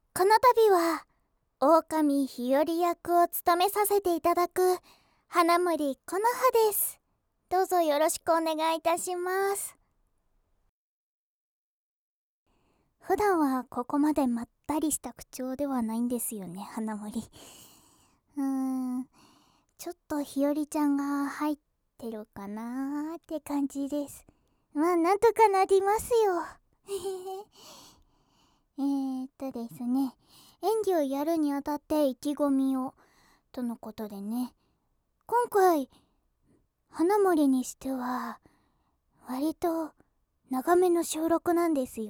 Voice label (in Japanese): フリートーク（収録前）